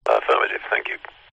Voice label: vehicles airplane pilot radio chatter comms control tower says affirmative thank